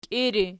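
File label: "kiiri" nominative, Archi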